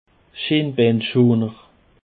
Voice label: "schinbaanschoner", Alsace